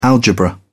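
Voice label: "algebra", British English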